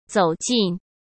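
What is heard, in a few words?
"走近", Mandarin Chinese; hsk; chinese flashcards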